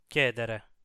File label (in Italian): "chiedere", Italian